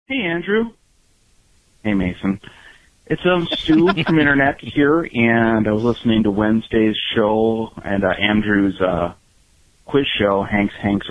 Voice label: voicemail message